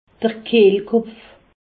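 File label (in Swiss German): "kehlkopf", Haut Rhin; larynx; medecine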